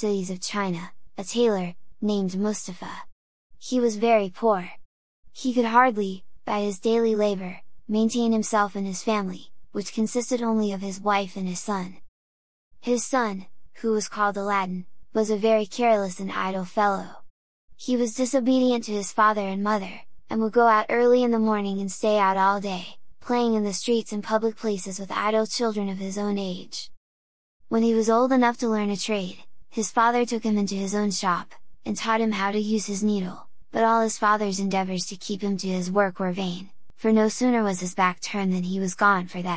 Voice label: story